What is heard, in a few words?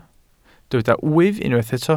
"wyf", Welsh